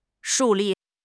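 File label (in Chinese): "树立", Chinese; HSK6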